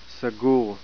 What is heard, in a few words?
"closed", Hebrew